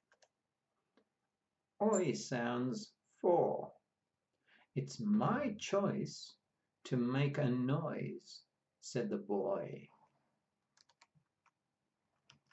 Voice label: Oi sounds, pronunciation of australian english